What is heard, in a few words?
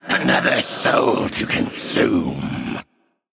Male Wraith Play